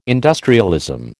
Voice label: "industrialism", American

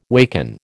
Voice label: "waken", American

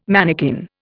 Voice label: "manikin", Indian

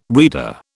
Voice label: "reader", British

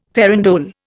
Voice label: "farandole", Indian

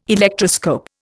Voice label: "Electroscope", Indian

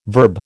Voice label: "verb", American

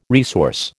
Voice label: "resource", American

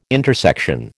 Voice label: "intersection", American

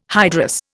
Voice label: "hydrous", Indian

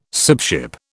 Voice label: "sibship", British

